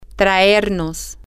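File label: Spanish, female; to Bring Us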